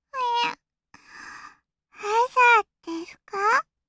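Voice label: girl, Windows startup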